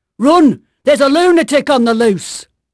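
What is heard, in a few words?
English female, voice; Pirates Of The Caribbean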